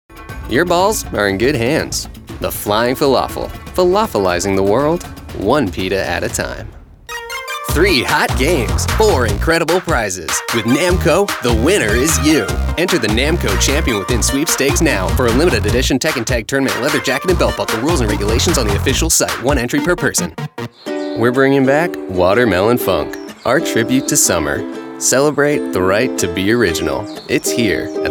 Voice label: men commercial; Quirky, friendly, youthful Millennial. Conversational, comical, and animated.